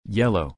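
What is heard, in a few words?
"yellow", English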